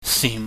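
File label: "sim", Cantonese, low falling tone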